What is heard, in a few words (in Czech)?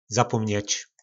"Zapómněč", po našimu; Zapomenout